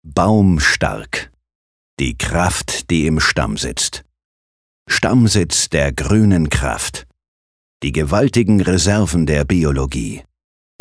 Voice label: Werbung, Kraftvoll

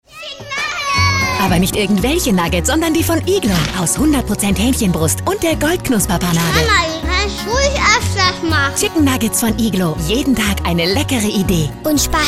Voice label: Werbung Variabel

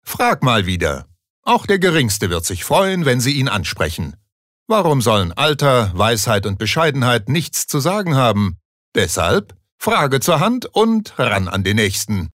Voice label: Werbung Bestimmt

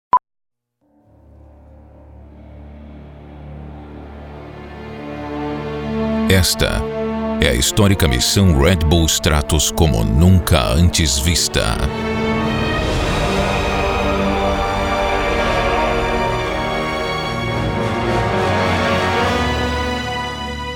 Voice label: PT BRA Werbung Kraftvoll

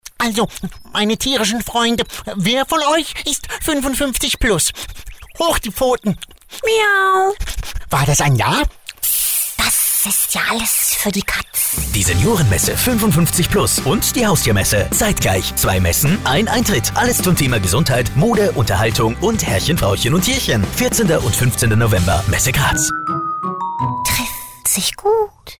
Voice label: Fremdtake Dynamisch

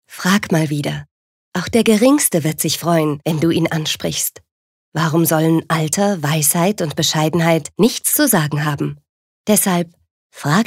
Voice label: Werbung Sanft

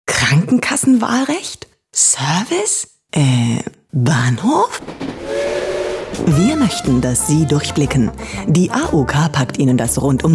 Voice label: Werbung Freundlich

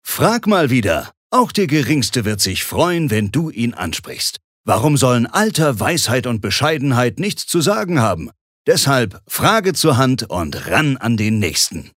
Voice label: Werbung Dynamisch

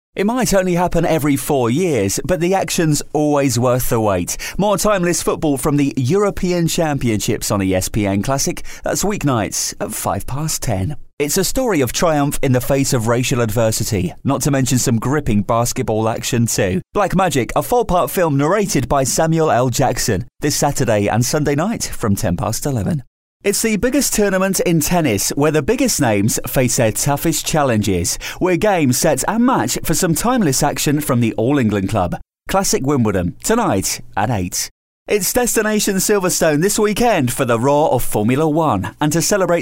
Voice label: Eng UK Werbung Lebendig

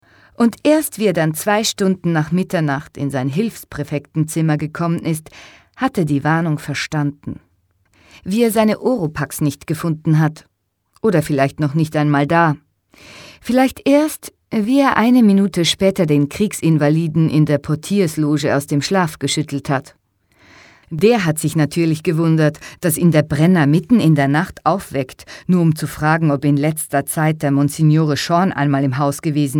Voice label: Schauspiel Neutral

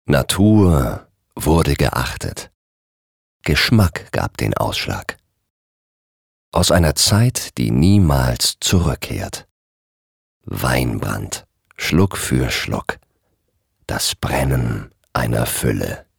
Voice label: Werbung Sanft

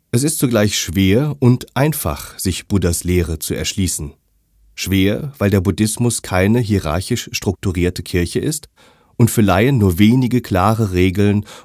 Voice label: Kommentar Neutral

